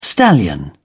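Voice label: "stallion", British English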